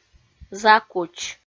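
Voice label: "закуч", Komi, female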